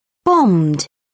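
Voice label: "bombed", British English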